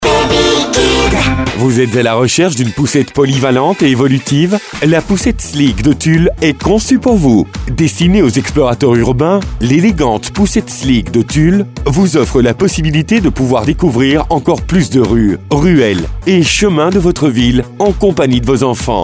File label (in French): Baby Kid Promo